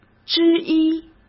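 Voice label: "之一", Mandarin Chinese; chinese-flashcards; hsk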